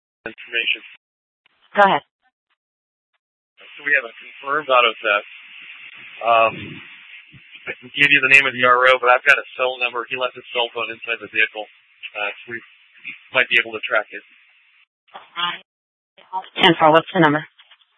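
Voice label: Police Department North Dispatch, scanner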